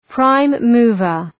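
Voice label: "prime mover", English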